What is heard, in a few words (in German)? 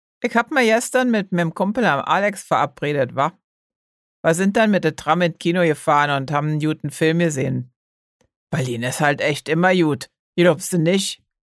Stimmprobe Berlinerisch